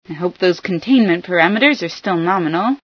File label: hope nominal, female